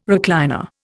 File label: "recliner", Indian